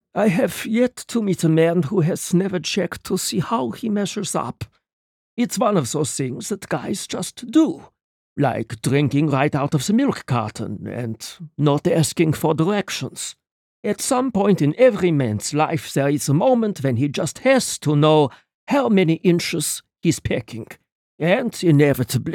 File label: german, accents dialects